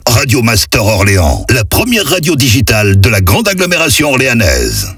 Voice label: speed Accap, Voix off; Orléans; 1ère radio Orléanaise; Radio Master Orléans

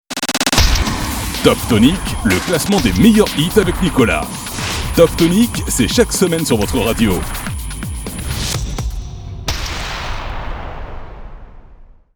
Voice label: Bande Annonce

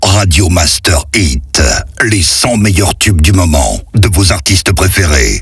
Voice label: Accap brutal, Voix off; Radio Master; 100 meilleurs Hits

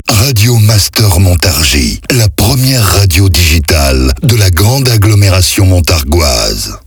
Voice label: 1ère radio Montargis slow, Accap; Voix Off

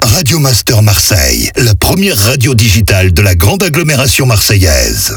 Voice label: medium Accap, Voix off; 1ère radio Marseille; Radio Master Marseille

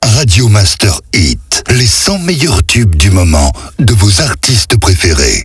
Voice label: Accap Slow, Voix off; Radio Master; 100 meilleurs Hits

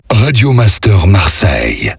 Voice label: Voix off Accap slow; Radio Master Marseille

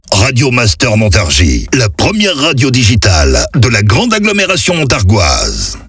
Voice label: Voix Off; Montargis; 1ère radio Montargis speed; Accap

